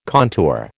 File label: "contour", American